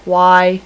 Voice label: "y", female, alphabet